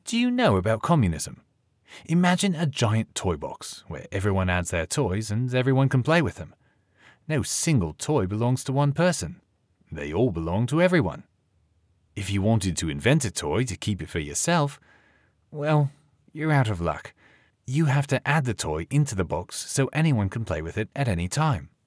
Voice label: Audio Guide British Esoteric Concept for Children